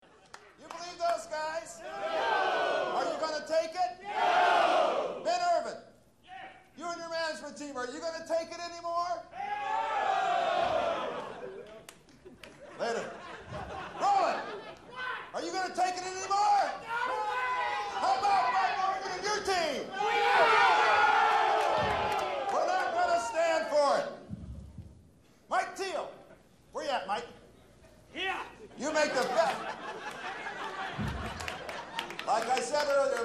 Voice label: corporate pep talk